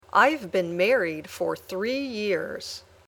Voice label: Dictation